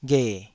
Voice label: "ghe3", Taiwanese syllable